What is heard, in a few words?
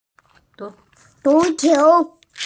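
"football", hearing impaired; zu2qiu2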